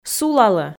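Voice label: "сулалӧ", Komi, female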